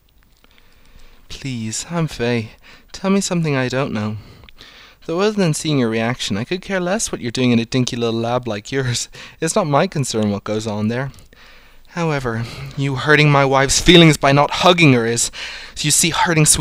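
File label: Albanian Voice Work; Albanion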